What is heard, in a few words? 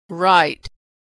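"write", American English; verb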